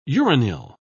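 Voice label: "uranyl", British English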